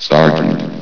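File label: "sargeant", male synthesized announcer voice; hlvox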